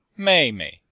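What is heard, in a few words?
"mei4mei5", Mandarin Chinese; Cours de Chinois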